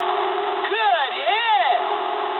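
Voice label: English bomb success mood low; speech; War Thunder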